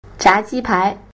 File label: "zha ji pai", chinese food